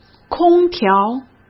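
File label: "空调", Mandarin Chinese; chinese flashcards; hsk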